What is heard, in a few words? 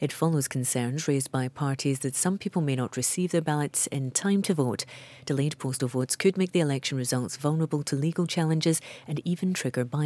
News, Scottish